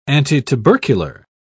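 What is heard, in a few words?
"antitubercular", British English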